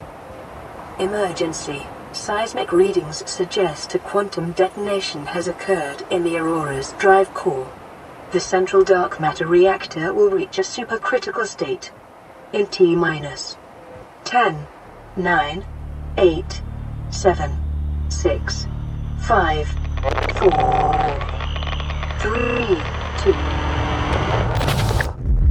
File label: Updated AI Speech